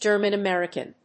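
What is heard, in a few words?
"German-American", English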